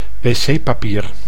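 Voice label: "WC-papier", Dutch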